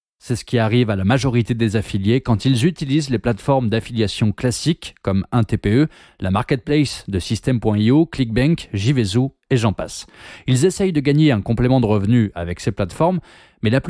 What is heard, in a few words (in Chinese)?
法语男, 外语, 小语种